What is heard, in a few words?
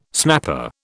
"snapper", British